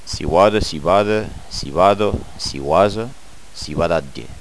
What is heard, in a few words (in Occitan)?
"civada", gasconha